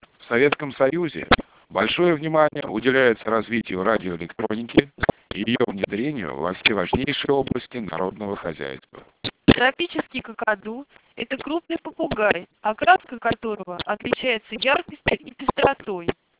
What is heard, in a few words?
speech melp 4400 bps